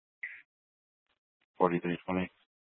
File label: Sheriff Dispatch, scanner